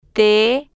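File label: "t", spanish, female, slow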